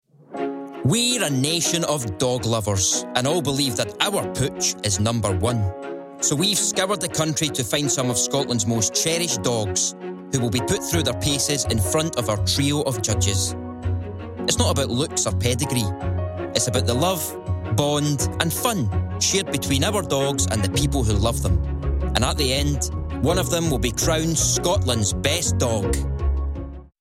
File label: Documentary Extract; Dog